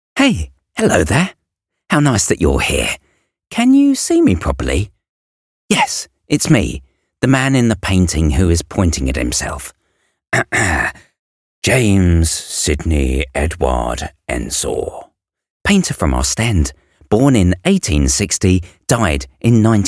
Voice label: UK narration kids